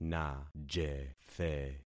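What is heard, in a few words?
"najethe", concatenated syllables; Affixing experiment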